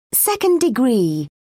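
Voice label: "second degree", British English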